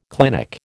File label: "clinic", American